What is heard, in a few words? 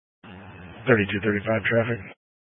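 Incident Tactical 3, Scanner audio recording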